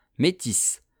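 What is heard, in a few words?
"metisse", French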